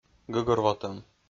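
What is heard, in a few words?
"гӧгӧрвотӧм", Komi, male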